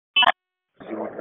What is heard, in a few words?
FIRE OPS 3, P25 recordings; wake